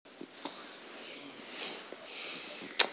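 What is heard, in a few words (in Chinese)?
"bo1luo2", Mandarin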